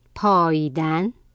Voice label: "payidan", Persian